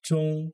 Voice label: "中", Chinese